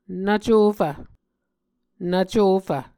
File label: "nvcofv", Muscogee